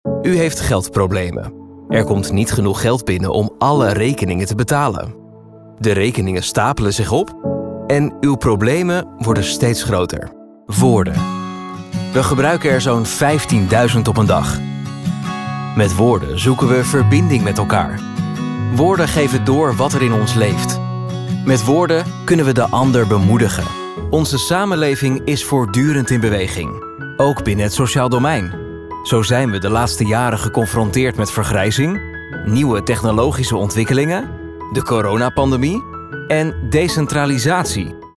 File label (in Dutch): neutraal